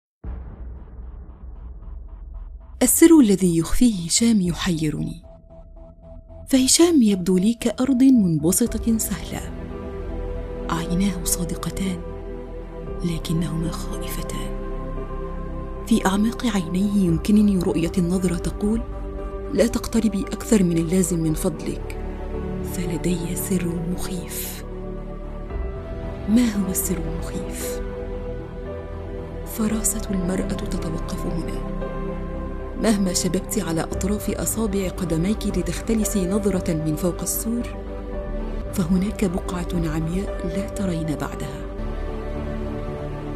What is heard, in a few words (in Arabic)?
مقطع روائي